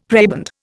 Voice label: "prebend", Indian; Dictionary